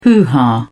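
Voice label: "hoo ha", British English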